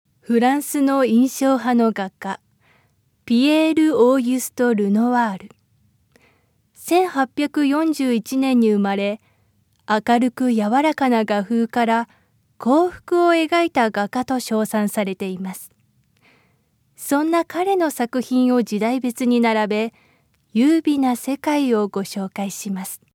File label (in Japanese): 番組ナレーション(落ち着いた)